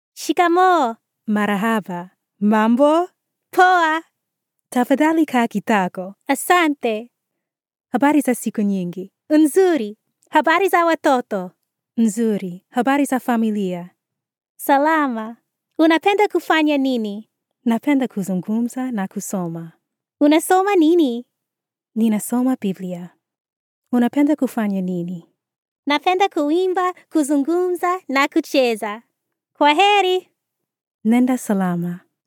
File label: Upbeat Swahili Girl Woman